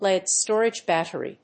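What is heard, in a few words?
"lead storage battery", English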